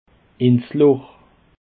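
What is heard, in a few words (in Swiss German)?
"loch", Alsatian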